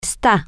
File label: he she it is temporary, spanish, F